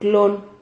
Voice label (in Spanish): "clon", Silabas